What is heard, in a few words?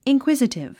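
"inquisitive", English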